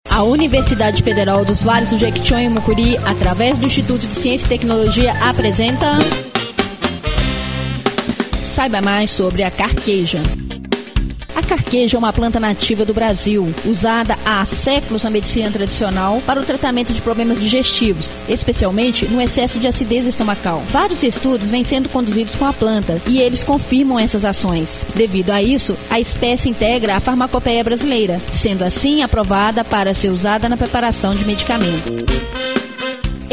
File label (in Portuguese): SPOT; CARQUEJA